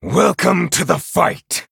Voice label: Male Night Elf Greetings

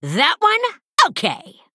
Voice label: Female Human Attack

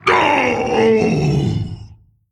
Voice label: Male Demon Death

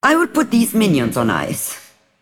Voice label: Female Broker After Freezing

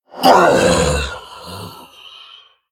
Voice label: Male Demon Death

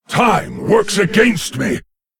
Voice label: Male Lich Time

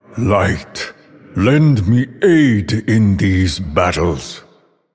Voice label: Male Draenei Bark; Prophet Velen

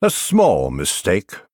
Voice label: Male Night Elf Oops

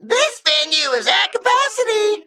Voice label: Male Mech Emote ERROR FULL MINIONS

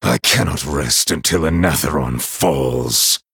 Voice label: Male Night Elf Start

